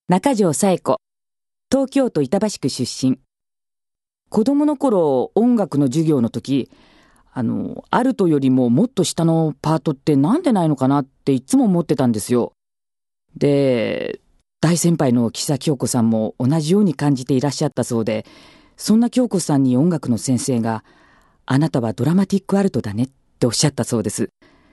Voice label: female voice freetalk; フリートーク

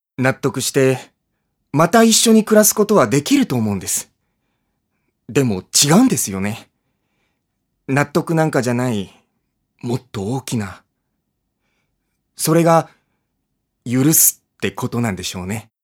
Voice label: male voice; セリフ

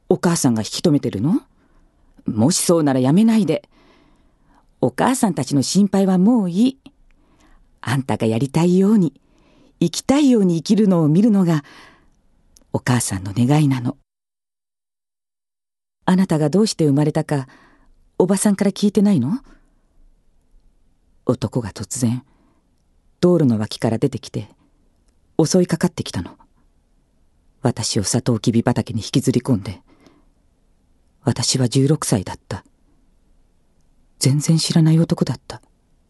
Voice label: female voice; セリフ

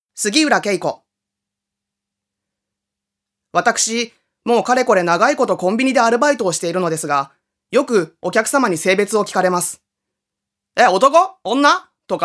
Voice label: female voice freetalk

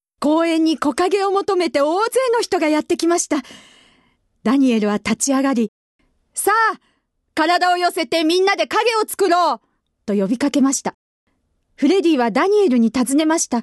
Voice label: readnig, female voice

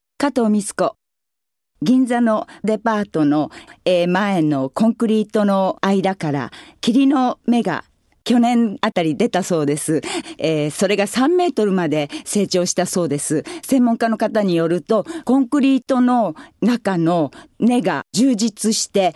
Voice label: free talk, female voice

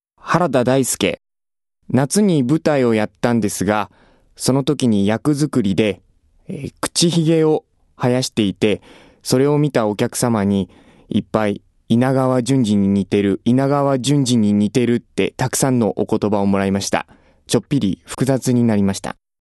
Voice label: freetalk, male voice

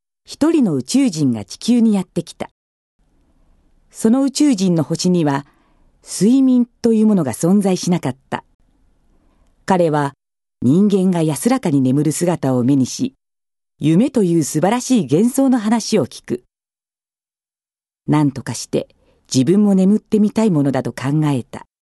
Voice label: female voice; ナレーション; ナレーション 03

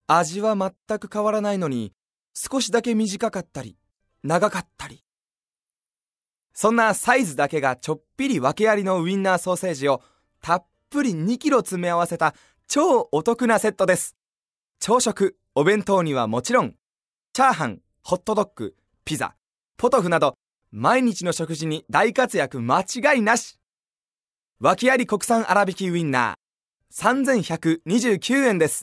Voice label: male voice

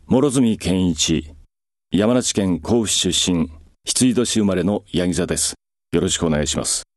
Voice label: freetalk, male voice

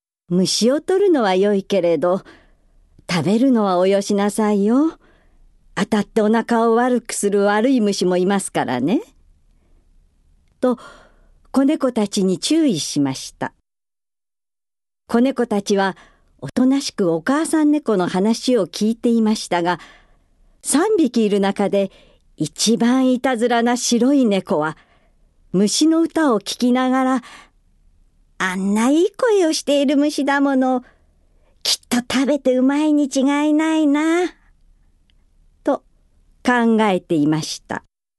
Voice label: reading, female voice

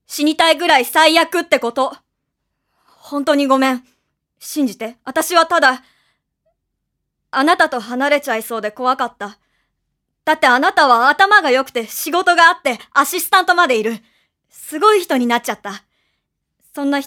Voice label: female voice

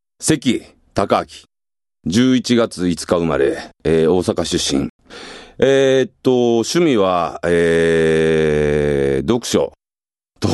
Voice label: freetalk, male voice

